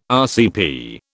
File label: "RCP", British